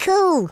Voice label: "perfect", Teenage Angst; speech

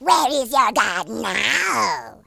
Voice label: revenge, speech, Horror

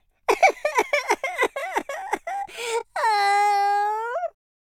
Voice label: sad, Footy Fan speech

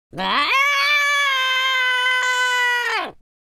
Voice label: scared, London Cabbie; speech

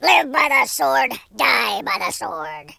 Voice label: "bye bye", War General; speech

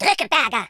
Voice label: coward, German

